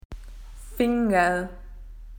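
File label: "finger", Australian English; PictureDictionary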